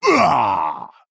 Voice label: Orc Male Death